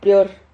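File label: "prior", Spanish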